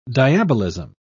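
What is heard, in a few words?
"diabolism", British English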